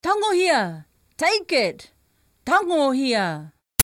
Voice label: Tangohia!, Māori

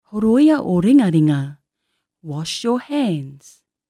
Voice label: "ringaringa", Māori

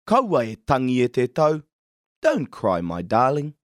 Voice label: tangi(hia), Māori

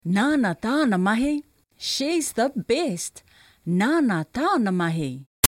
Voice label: Nāna tāna mahi!, Māori

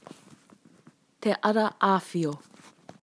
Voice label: te ara āwhio, Māori

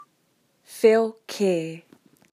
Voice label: Wheo kē!, Māori

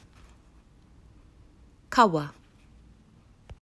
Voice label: "kawa", Māori

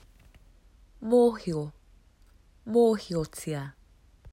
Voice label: mōhio(tia), Māori

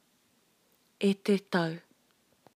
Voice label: e te tau, Māori

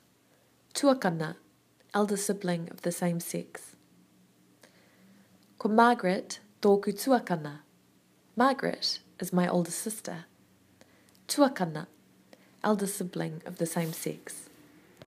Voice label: "tuakana", Māori

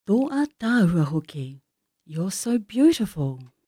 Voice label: "ātaahua", Māori

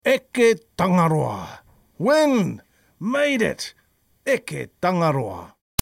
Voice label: Eke Tangaroa!, Māori

